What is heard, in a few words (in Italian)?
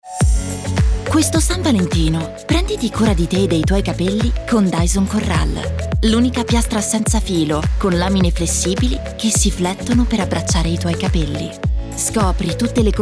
spot; dyson